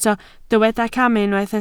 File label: "camu", Welsh